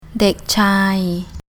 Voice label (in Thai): "Dèk Chaay", Thai